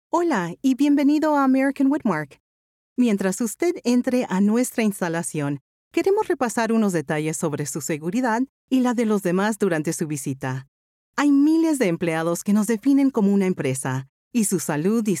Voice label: Spanish Narration